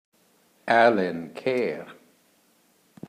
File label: "Alenquer", Portugal